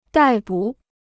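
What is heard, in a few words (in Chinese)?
"逮捕" Chinese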